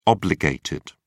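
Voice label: "obligated", British English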